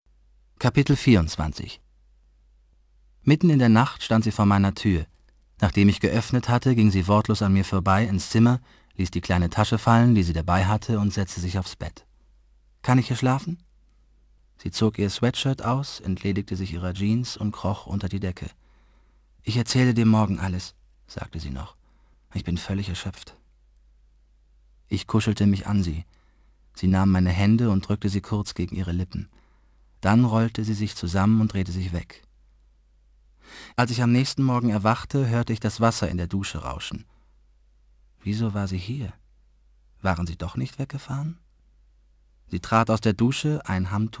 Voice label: hoerbuch; der gestohlene abend

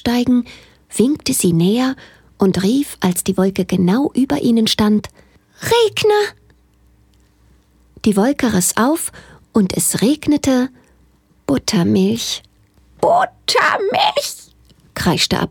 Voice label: kinderhoerbuch kleine hexe

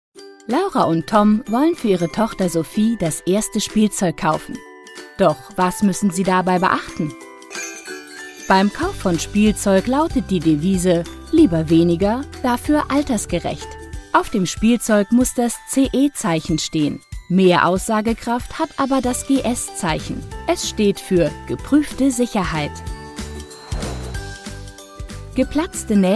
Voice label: erklaerfilm; tuev

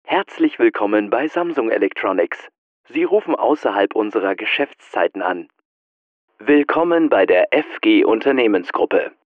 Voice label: telefon

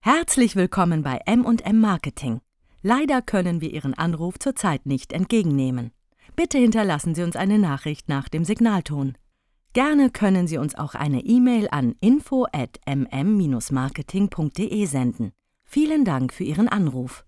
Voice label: marketing telefon

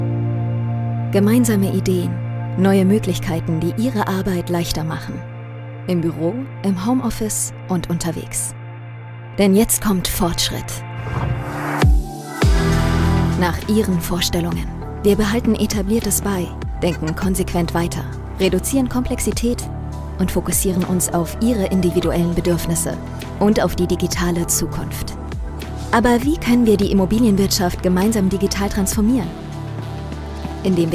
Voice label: Imagefilm

